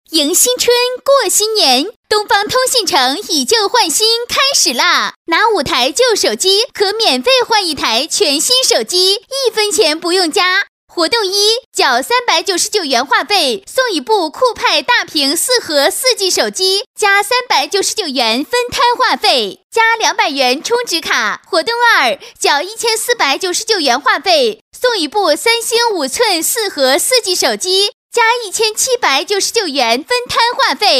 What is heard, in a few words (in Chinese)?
女国语, 专题; 东方通信城